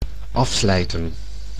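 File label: "afslijten", Dutch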